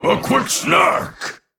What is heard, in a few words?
Male Frog Attack